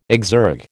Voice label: "exergue", American